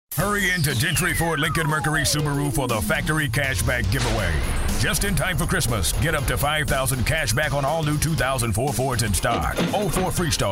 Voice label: car spot, voice over commercials